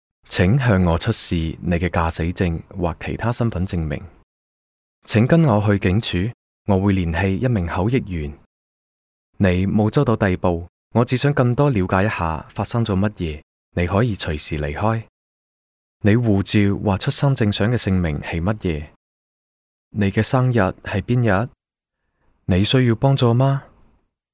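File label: Cantonese, male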